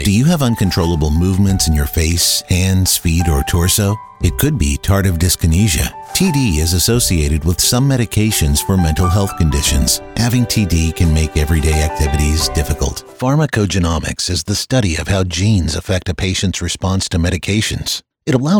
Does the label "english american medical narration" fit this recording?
Yes